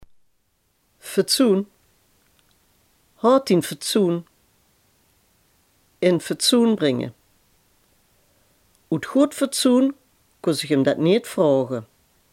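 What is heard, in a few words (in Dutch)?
"fatsoen" Limburgish dialect word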